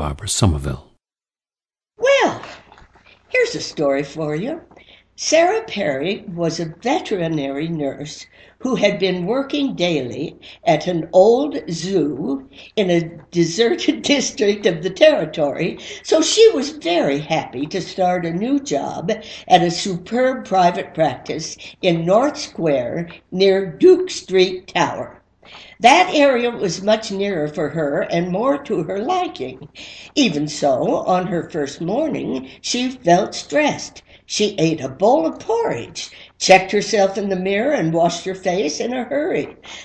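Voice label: colorado, International Dialects of English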